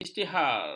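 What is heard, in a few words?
"isytihar", Malay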